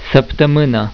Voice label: "saptamina", romanian; tijden